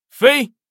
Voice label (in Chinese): "fei", man